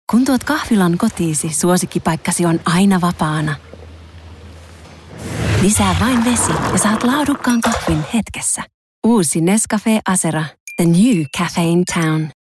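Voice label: Finnish commercial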